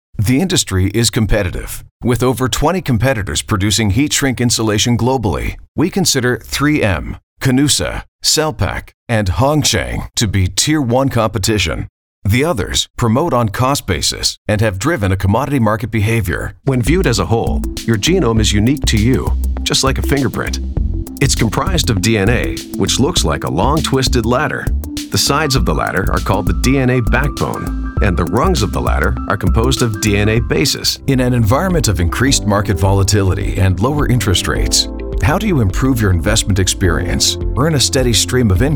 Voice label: English Male